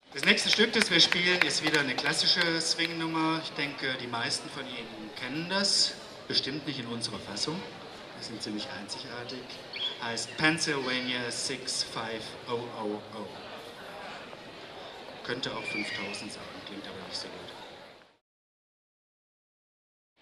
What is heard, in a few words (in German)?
Ansage